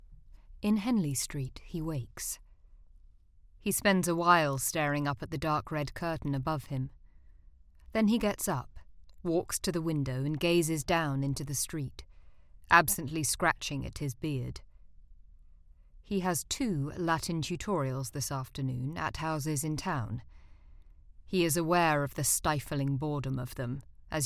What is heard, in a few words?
Home Studio Read